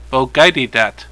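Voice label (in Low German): "wogeiht", Plattdüütsch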